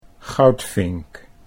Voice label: "goudvink", Dutch

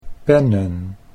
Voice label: "pennen", Dutch

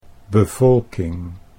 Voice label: "bevolking", Dutch

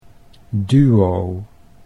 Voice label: "duo", Dutch

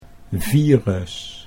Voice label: "virus", Dutch

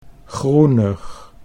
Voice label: "groenig", Dutch; 'greenish'

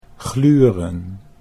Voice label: "gluren", Dutch

